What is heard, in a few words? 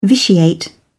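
"vitiate", English, British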